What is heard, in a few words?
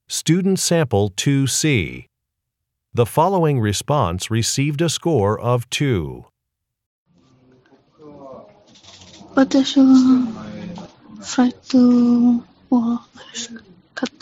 Japanese conversation